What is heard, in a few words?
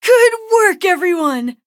victory, en